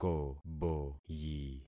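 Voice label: "koboyi", concatenated syllables; Affixing experiment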